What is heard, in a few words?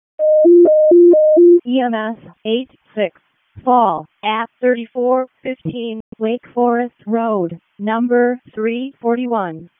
EMS ALERT, p25; wake